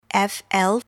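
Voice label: "fl", English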